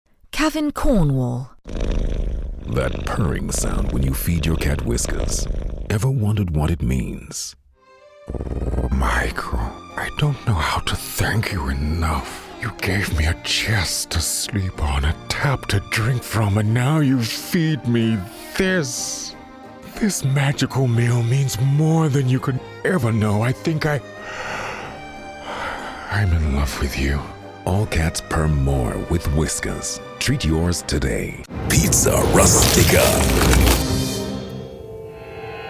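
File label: Commercials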